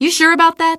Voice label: answer, female; Garry's Mod; hl2

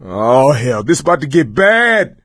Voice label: reaction negative, survivor voice

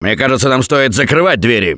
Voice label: close the door, russian; Left 4 Dead 2; Gambler

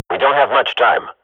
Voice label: radio bot time, Counter-Strike swat; Global Offensive; player vo

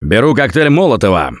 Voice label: take molotov, russian; Gambler

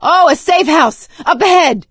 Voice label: safe spot ahead, survivor voice; Left 4 Dead 2; producer

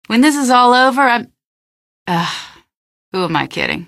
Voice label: question, female; Garry's Mod; hl2

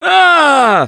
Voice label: Hurt Critical, russian; Gambler

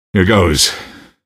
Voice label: squad affirm, male; npc; Garry's Mod; hl2

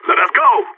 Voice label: radio lock n load; player vo phoenix

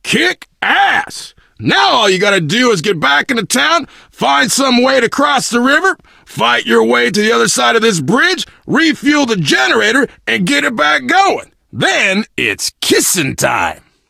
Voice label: initial meeting, survivor voice biker; Left 4 Dead 2

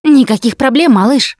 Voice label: You Are Welcome, russian; Voice; Producer; Ellis

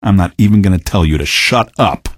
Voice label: answer, male; npc; Garry's Mod; hl2

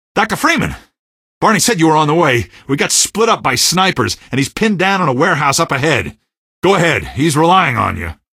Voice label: greeting, sniper, male; Garry's Mod; hl2; Streetwar

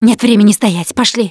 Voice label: Emphatic Go Quiet, russian; Producer

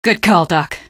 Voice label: squad affirm, female; npc; Garry's Mod; hl2

